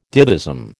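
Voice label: "ditheism", American English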